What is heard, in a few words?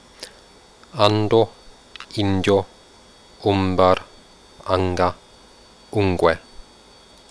quenya voiced